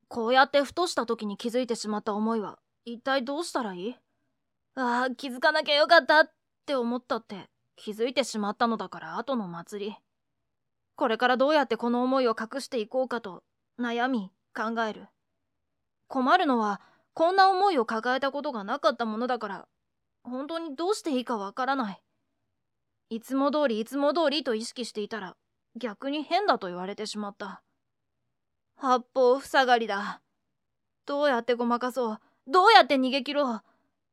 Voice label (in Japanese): ヘタレ少年